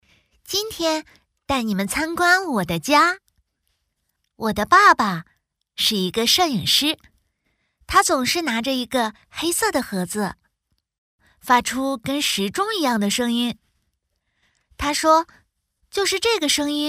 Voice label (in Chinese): 角色 五岁女童; 山海边的家